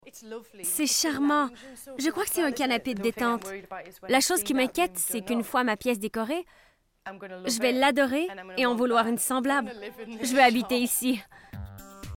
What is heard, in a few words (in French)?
Demo Surimpression Vocale